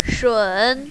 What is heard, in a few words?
"shun3", mandarin, female